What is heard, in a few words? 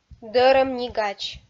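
"дӧрӧм ни гач", Komi, female; kpv audio dict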